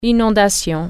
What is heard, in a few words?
flood, french